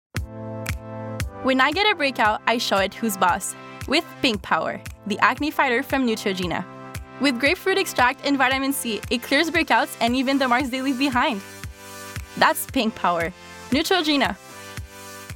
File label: voix EN